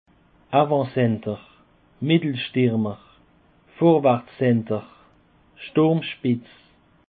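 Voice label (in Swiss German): "avantcenter", Alsatian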